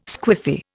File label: "squiffy", Indian